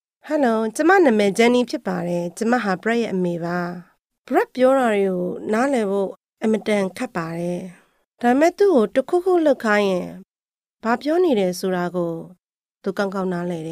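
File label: Burmese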